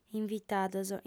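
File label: "invitados", Sardinian, female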